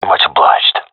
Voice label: thankful; vo; agents; Source Model Packs; Counter Strike Model Pack; CS2